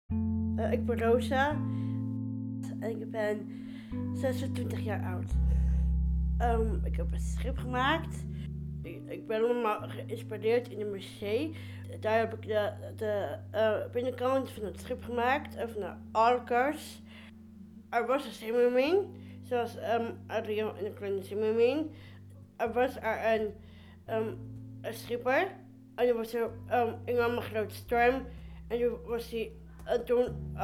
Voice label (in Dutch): INTERVIEW MET MUZIEK